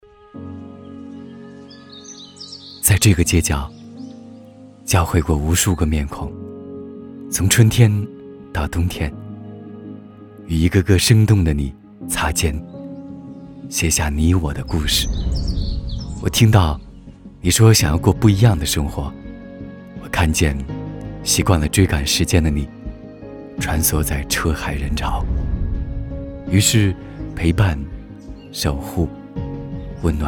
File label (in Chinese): 走心温情; 龙信